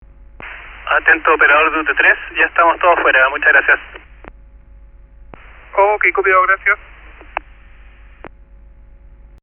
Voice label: paranal radio UT3 operator, mono; Paranal attention UT3 operator